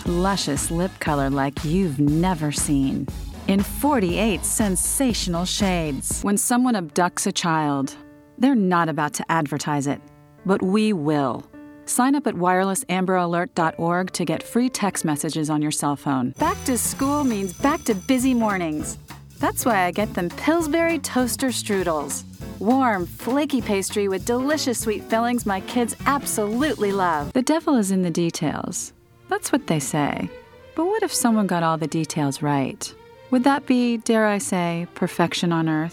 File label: Commercial, Voice-over